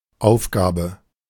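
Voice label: "Aufgabe", German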